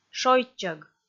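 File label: "шойччӧг", Komi, female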